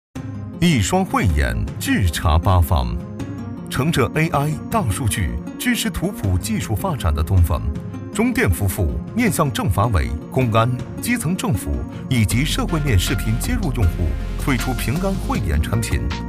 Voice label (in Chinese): 男 专题解说; 平安慧眼; 大气磁性